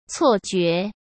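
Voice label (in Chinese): "错觉", Mandarin Chinese; chinese flashcards; hsk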